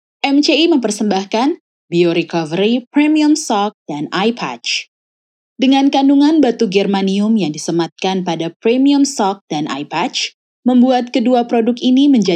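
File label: Indonesia formal voice over, female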